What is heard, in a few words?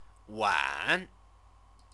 "wan", Chinese